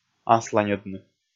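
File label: "асланьӧдны", Komi, male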